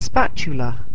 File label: "spatula", English